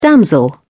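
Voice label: "damsel", British English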